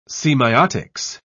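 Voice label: "semeiotics", English, British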